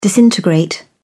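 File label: "disintegrate", English, British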